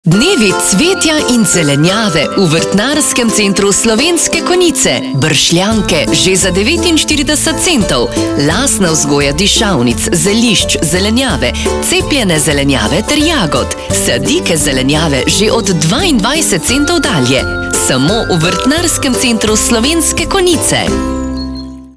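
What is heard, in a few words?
Slovenian, female, commercial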